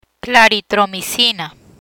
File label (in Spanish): "Claritromicina", Spanish; drugs; learn spanish